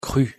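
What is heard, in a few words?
pronunciation french cru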